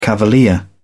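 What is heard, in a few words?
"cavalier", British English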